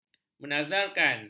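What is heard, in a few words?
"menazarkan", Malay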